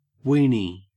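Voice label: "weeny" English, Australian; pronunciations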